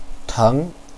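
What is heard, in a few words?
"teng2", Chinese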